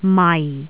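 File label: "mai4", Cantonese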